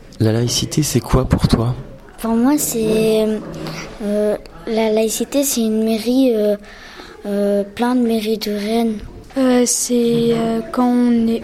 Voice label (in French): micro trottoir